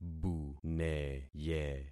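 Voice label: "buneye", concatenated syllables; Affixing experiment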